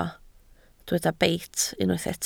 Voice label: "beit", Welsh

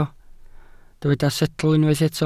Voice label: "setl", Welsh

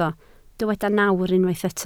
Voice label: "nawr", Welsh

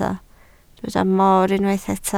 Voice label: "moor", Welsh

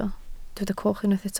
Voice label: "coch", Welsh

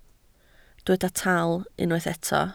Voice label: "tal", Welsh

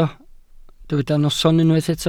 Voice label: "noson", Welsh

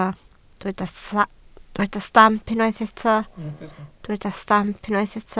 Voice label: "stamp", Welsh

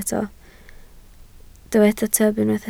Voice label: "tyb", Welsh

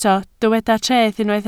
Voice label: "treth", Welsh

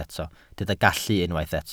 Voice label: "gallu", Welsh

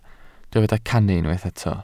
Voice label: "cannu", Welsh

